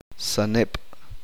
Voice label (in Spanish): uspanteko arena de rio